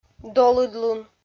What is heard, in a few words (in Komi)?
"долыдлун", Komi